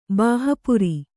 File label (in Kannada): "ಬಾಹಪುರಿ", Kannada